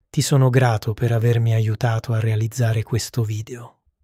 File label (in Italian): grato uomo; چطور به زبان ایتالیایی تشکر کنیم